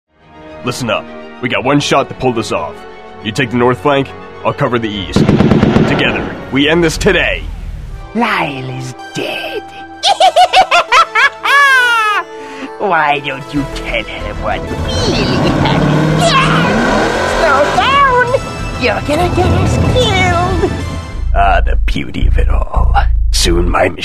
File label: Animation; Animation Demo